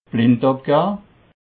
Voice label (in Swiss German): "blindabga", alsace